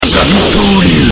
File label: voice, dubbing